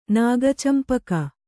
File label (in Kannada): "ನಾಗಚಂಪಕ", kannada